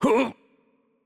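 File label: Spanish attack light voice; bloom minigame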